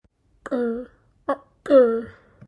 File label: Voiceless retroflex implosive